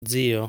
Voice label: "Zio", Italian